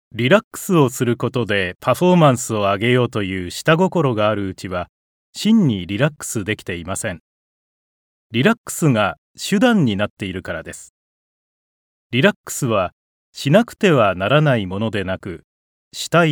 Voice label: Narration